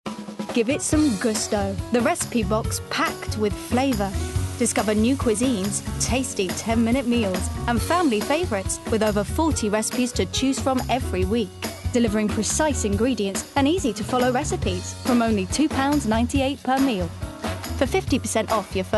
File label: English; Gousto